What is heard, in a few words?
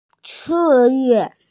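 "cure", Mandarin Chinese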